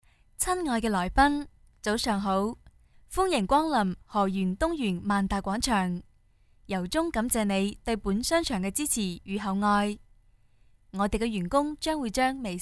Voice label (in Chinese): Y女 粤语 温馨广播